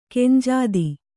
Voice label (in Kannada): "ಖಂಜಾತಿ", Kannada